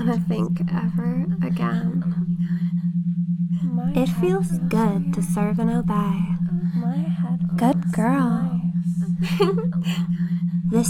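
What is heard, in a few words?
[F4A] Bimbo Mantras [Hypnosis][Binaurals][Snaps][Brainwashing][Mantra]; [Bimbofication]; [Loop]; [Obedienc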